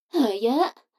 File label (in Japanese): 女の子台詞, ASMR